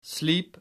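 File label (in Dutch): "sliep", Dutch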